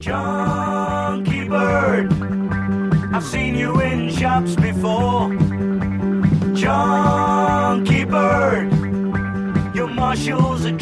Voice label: Radio Advert; Radio Hallam; Marshalls Chunky Chicken